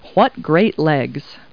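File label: woman, Talking Clip Words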